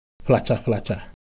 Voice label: Ewe Texture, ideophones